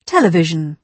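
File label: "television", British English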